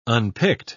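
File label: "unpicked", British English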